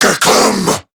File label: Attack, Male; Warframe; Corrupted Grineer Male; Dialog Barks Battle